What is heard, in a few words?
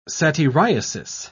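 "satyriasis", English, British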